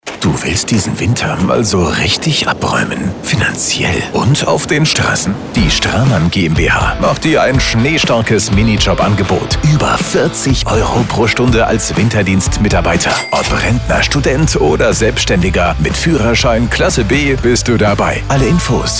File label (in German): RADIO Jobspot